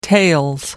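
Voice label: "tails", British English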